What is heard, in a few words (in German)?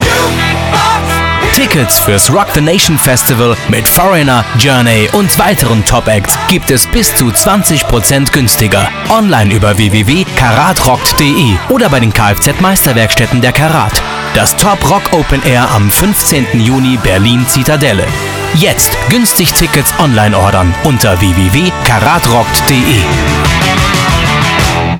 Funkspot; caratrockt; Berlin